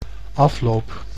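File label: "afloop", Dutch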